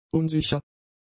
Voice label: "unsicher", German; adjectives

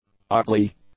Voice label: "ugly", English; adjectives

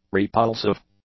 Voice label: "repulsive", English; adjectives

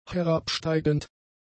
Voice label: "herabsteigend", German; adjectives

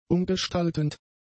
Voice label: "umgestaltend", German; adjectives

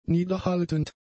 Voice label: "niederhaltend", German; adjectives

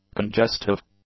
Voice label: "congestive", English; adjectives

